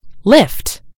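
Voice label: "lift", US English; gcompris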